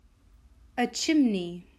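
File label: "Chimney", English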